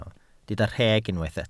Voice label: "rheg", Welsh

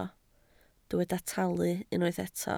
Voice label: "talu", Welsh

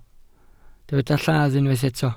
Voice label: "lladd", welsh

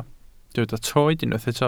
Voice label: "troed", Welsh

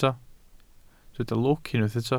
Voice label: "lwc", Welsh

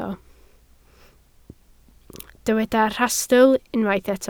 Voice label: "rhastl", Welsh

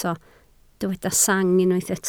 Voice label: "sang", Welsh

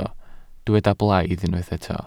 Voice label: "blaidd", Welsh

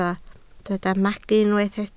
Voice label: "magu", Welsh

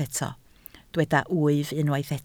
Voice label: "wyf", Welsh

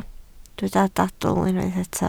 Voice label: "dadl", Welsh

